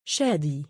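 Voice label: male "shaadii", Arabic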